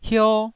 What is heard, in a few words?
"hio1", taiwanese